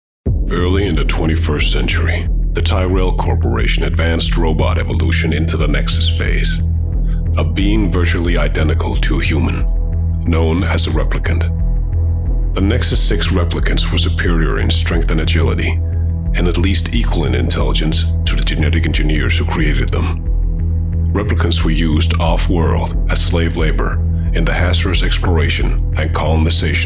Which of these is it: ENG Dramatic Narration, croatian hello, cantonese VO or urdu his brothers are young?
ENG Dramatic Narration